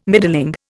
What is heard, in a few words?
"middling", Indian